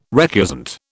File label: "recusant", British